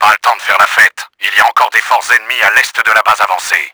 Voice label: DEFEND BASE, french; Battle Engine Aquila; XBOX